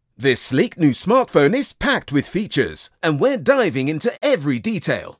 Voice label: Tech Content Creators and Innovators Engaging and Energetic